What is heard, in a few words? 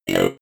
robot voice no fx